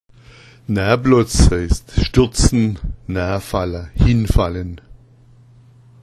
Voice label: "nablotza", schwaebisch

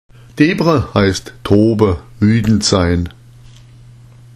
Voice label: "doebera", schwaebisch

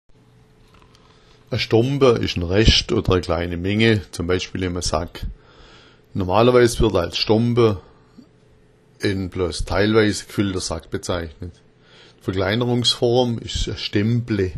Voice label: stompa, schwaebisch

